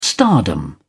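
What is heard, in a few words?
"stardom", British English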